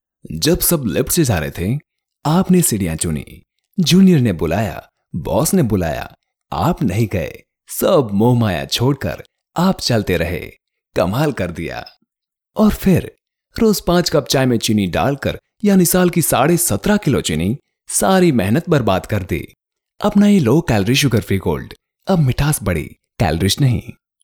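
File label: Hindi, male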